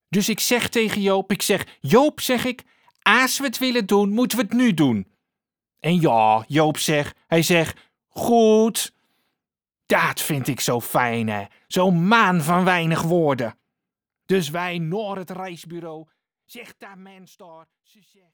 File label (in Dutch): Typetje met fade